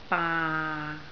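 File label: "baa6", Cantonese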